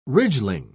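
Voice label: "ridgeling", British English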